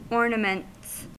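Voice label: "ornaments", American English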